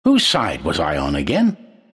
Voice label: announcer battle start; dlc trine

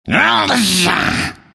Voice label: anger; slardar

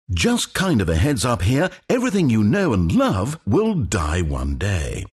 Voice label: announcer count battle; dlc stanleyparable

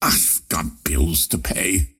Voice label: lasthit pain; ringmaster

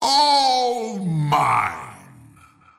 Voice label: lasthit pain; razor

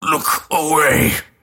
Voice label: attack pain; dota; grimstroke

